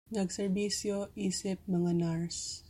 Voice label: Cebuano